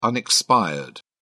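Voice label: "unexpired", British English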